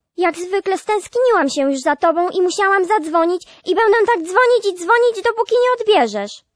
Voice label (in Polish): Kobieta Dzwoni